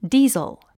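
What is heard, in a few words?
"diesel", English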